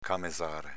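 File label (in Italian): "camezàre" Veneto dialect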